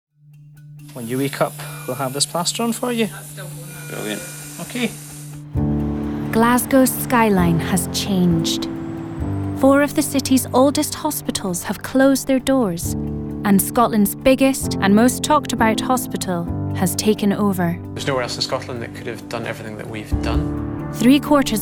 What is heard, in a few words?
narrative; montage